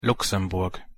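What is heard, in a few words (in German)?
"luxemburg", German; pronunciation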